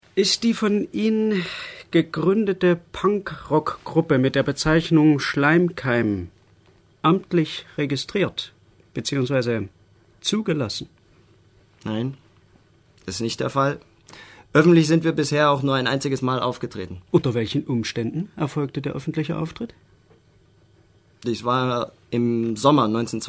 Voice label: Saechsisch Hoerspiel; SWR2

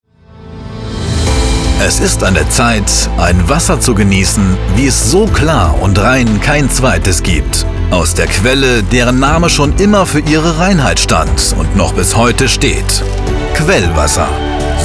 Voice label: Werbung Wasser; LOFT VOICES

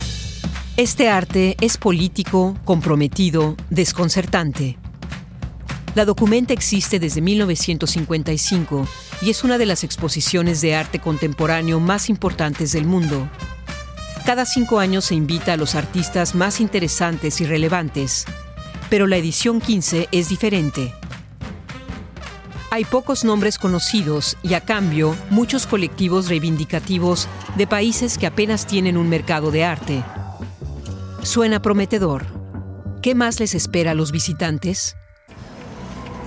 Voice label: TV Report; TV-Report Documenta fifteen

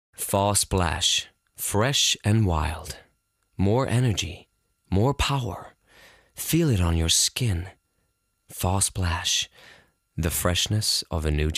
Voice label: us englisch; LOFT VOICES

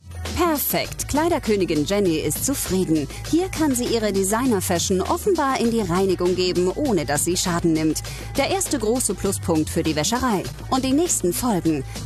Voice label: Kommentar Docufactual; SAT1 Wuestenkoeniginnen

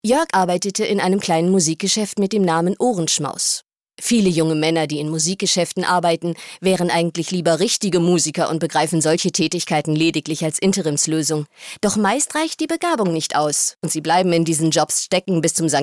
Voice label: erzaehlung

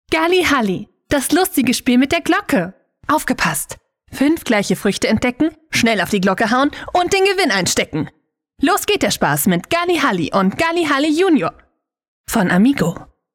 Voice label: Werbung Spiel

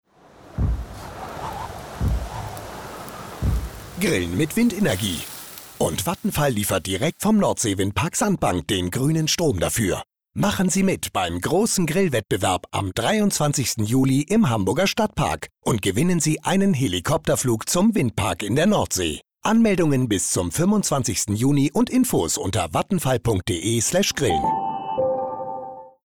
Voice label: Funk Grillwettbewerb mit fx und logo; vattenfall

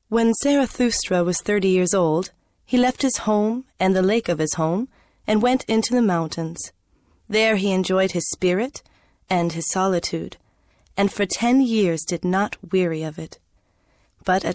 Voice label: us englisch

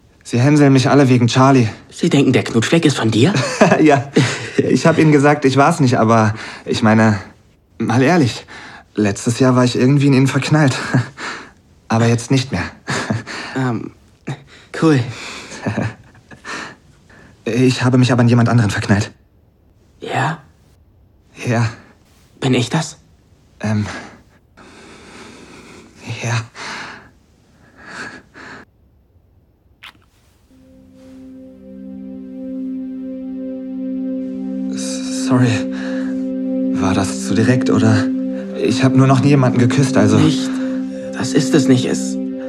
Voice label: Erste Stimme, German; Real - Heartstopper (Netflix) - Rolle:James; English UK

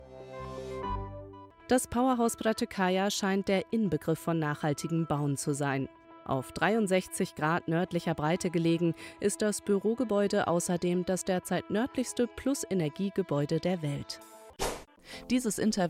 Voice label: Nachrichtenbeitraege; LOFT VOICES

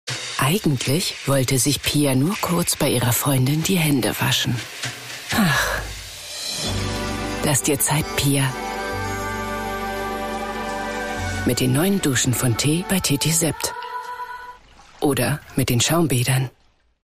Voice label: tv spot; tetesept duschen

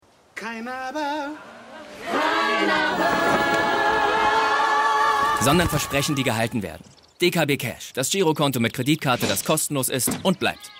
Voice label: Werbung, Jung (18-30), German; DKB - Werbung; Germany; LOFT VOICES

